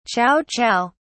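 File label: "chow chow", British English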